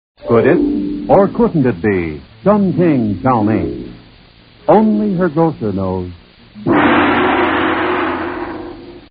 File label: Commercial; Chun King